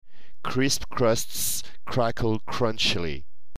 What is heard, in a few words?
crisp, tongue twisters